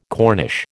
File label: "Cornish", American